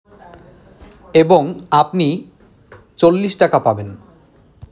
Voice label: male